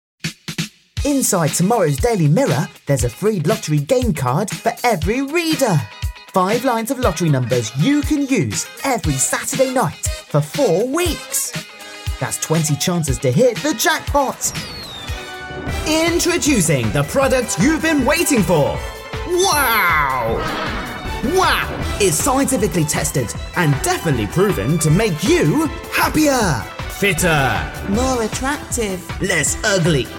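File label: English UK commercial; GoLocalise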